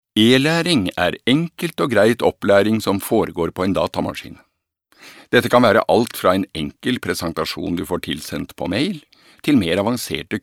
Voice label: no elearning

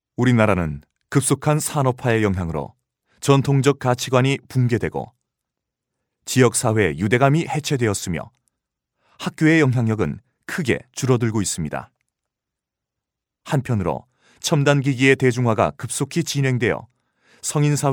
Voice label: kr corporate; male; korean

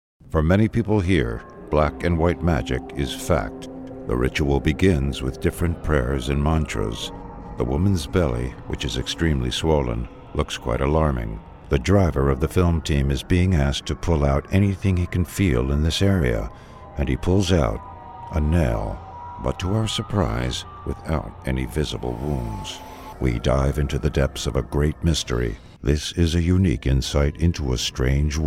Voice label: us documentary

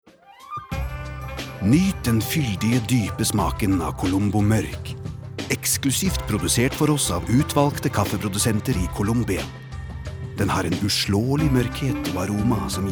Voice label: no soft sell

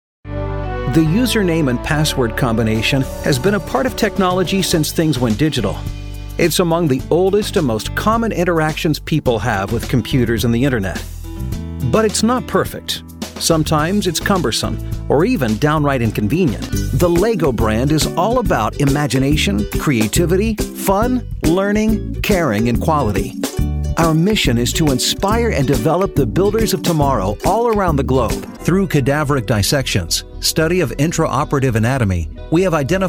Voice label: US elearning, english us, male

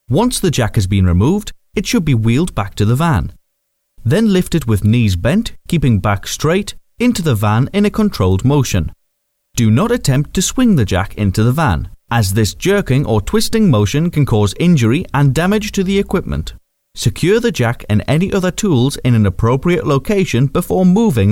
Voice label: uk corporate training

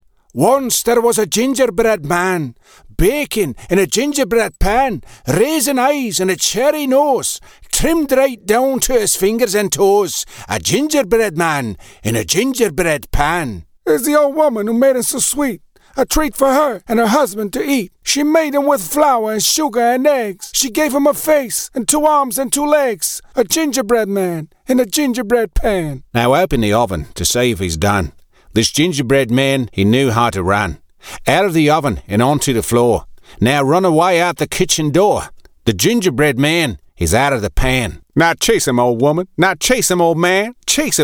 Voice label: English accents, male; english-us

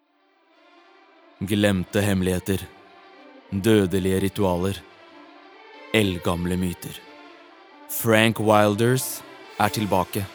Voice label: no movie trailer

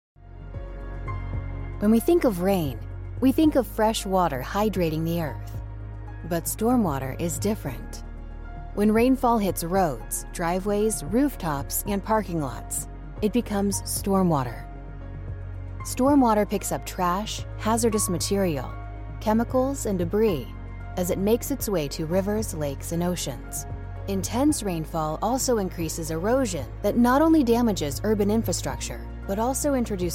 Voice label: us corporate

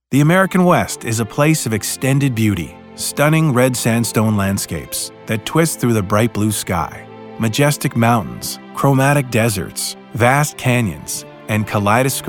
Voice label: us narration, engelsk-us, mann

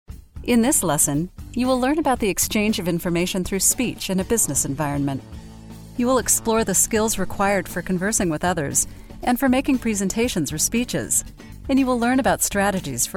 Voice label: us elearning, engelsk-us, kvinne

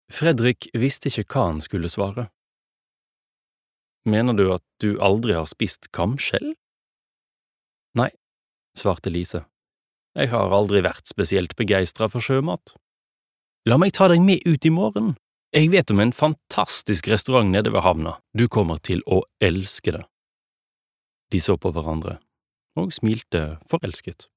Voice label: no audio book, norsk, mann

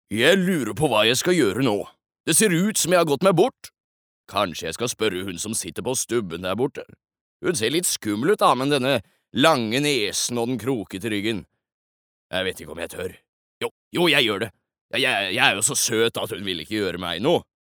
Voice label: no dub character

